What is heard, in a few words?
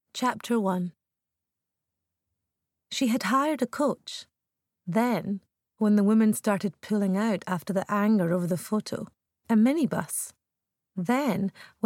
Audiobook